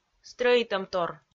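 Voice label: "стрӧитӧмтор", Komi, female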